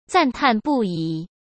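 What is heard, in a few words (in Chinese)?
"赞叹不已", Mandarin Chinese; hsk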